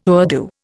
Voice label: "Urdu", Indian